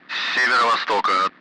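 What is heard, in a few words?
Speech Sound Russian Common Allied Flight; DCS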